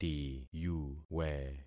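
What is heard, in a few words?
"tiyuwe", concatenated syllables; Affixing experiment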